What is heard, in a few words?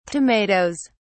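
"tomato(es)", British English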